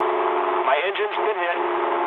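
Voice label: damaged engine mood low, speech English; War Thunder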